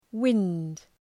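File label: "wind", English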